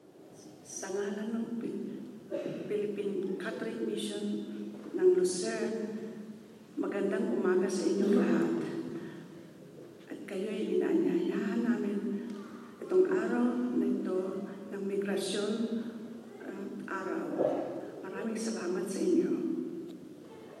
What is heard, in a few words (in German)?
Grussworte auf Philippinisch; Wie sieht Vielfalt an rel Orten aus